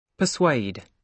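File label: "persuade", British English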